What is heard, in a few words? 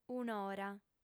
"un'ora", audiofem